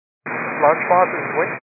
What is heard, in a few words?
122 725MHz, scanner radio